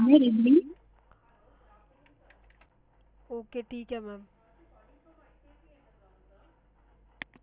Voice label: Linphone call